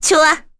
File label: Vox Happy kr; Chrisha; kingsraid